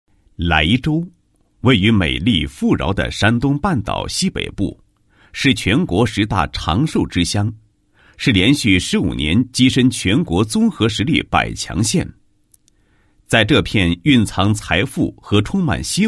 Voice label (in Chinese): 男 企业; 某市工商联介绍